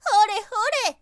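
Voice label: "horehore", Japanese, girl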